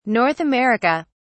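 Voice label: "north america", British English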